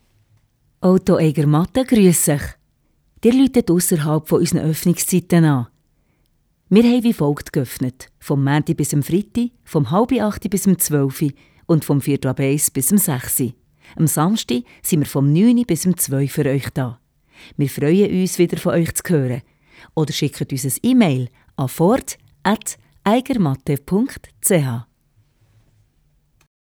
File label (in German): Ansage; auto eigermatte